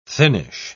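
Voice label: "thinnish", British English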